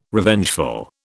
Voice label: "Revengeful", British